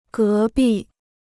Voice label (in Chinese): "隔壁", Chinese